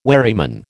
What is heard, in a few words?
"wherryman", American